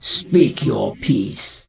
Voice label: NPC Death Knight Female Low Greeting